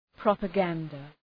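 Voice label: "propaganda", English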